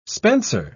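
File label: "spencer", English, British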